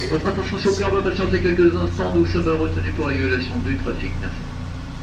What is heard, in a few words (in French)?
annonce regul traffic, Metro